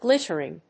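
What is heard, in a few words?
"glittering", English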